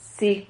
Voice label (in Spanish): "zig", Silabas